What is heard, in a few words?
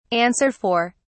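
"answer for", British English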